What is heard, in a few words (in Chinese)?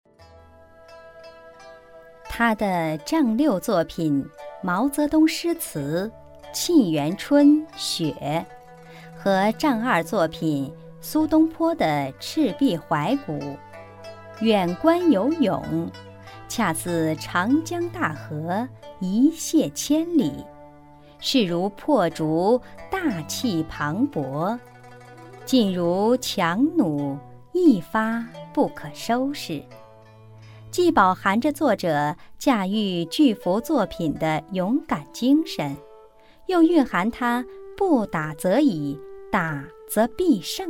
人物配乐解说; 专题